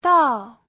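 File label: "dào", Mandarin Chinese; Giáo trình Hán ngữ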